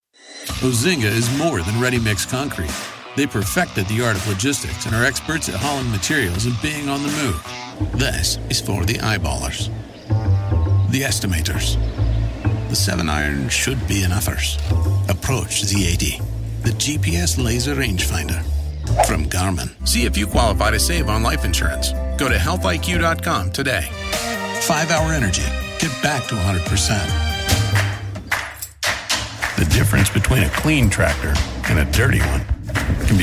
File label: National Spots